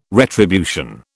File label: "retribution", British